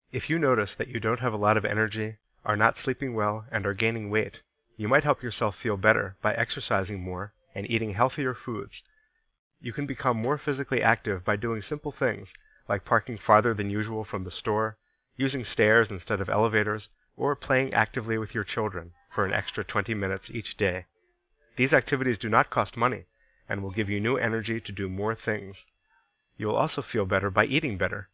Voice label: Exercise Nutrition English